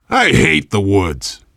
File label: world farmhouse, voice, biker